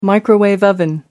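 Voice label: "koala bear", English, British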